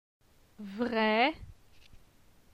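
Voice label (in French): "Vre", French; phonetique; la syllabe e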